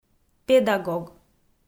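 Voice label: "педагог", Komi, female; audio dict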